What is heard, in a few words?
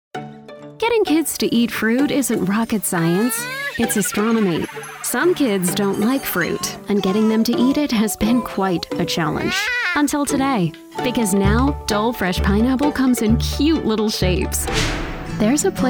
Commercial